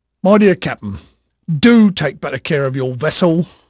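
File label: English male, voice; Pirates Of The Caribbean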